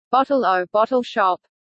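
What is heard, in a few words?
"bottle-o bottle shop", British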